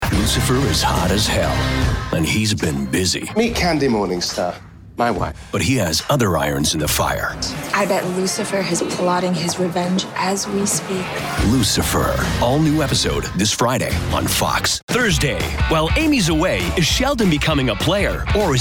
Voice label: English Canadian promos